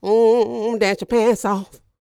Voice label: DIALOG, R&B Dance, FEM. SPOKEN; Spectrasonics Vocal Planet

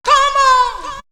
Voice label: Female, Voice

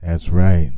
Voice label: AT S RIGHT, Male Vox; Hip Hop Nation

